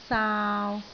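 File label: "saau3", Cantonese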